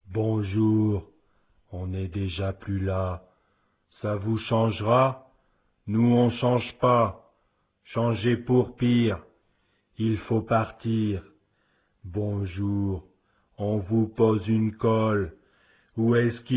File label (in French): repondeur